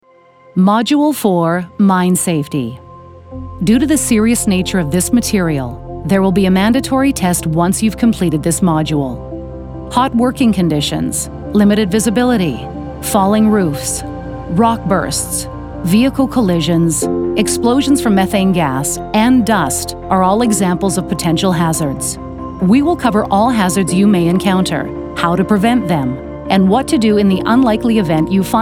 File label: eLearning; Married With Mics; Mine Safey Safety mining industrial natural resources petroleum